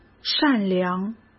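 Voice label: "善良", Mandarin Chinese; chinese flashcards; hsk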